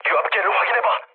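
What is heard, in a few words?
Korean damaged oil mood low, speech; War Thunder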